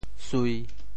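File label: "sui1", Teochew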